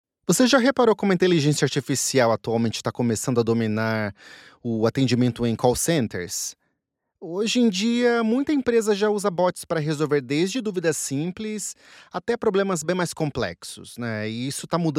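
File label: Portuguese, Brazil, male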